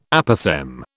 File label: "Apophthegm", British